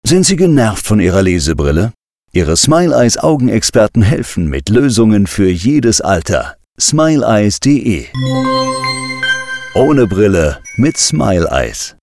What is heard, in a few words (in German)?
Werbespot; Smile Eyes